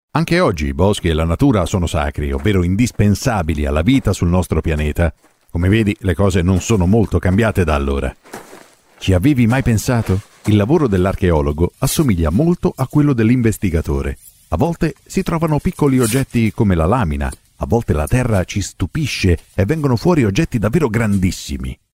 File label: italian documentaries